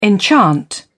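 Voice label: "enchant", English, British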